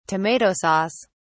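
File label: "tomato sauce", British English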